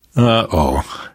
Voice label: reaction negative, survivor voice; source engine; left 4 dead 2; namvet